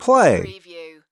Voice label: "play", US male; lynne publishing